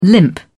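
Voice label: "limp", British English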